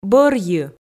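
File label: "бӧрйы", Komi, female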